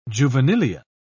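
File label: "juvenilia", British English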